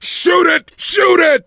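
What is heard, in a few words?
battlecry tank, male; survivor; coach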